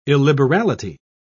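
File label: "illiberality", British English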